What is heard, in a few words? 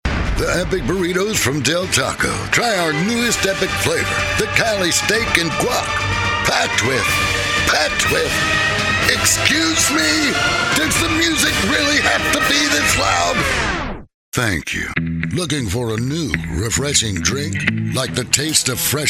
commercial